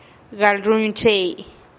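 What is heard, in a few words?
"gal ruinchei", Teotitlán del Valle Zapotec; tejer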